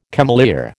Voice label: "cameleer", American